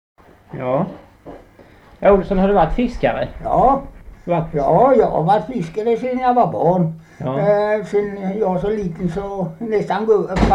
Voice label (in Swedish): Hulterstad, dialekter